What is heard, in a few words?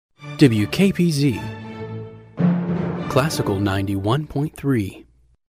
classical radio